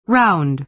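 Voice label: "round", American English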